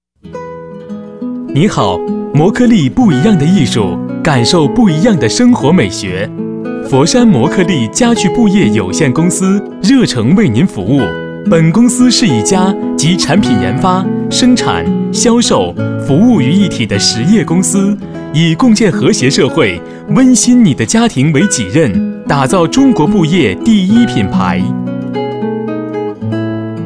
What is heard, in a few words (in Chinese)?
【彩铃】欢迎致电男-亲切舒缓